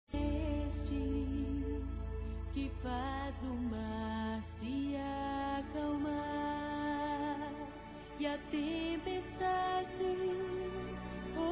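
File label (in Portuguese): Natal e Ano Novo irmão, feminino; Telemensagens; Misto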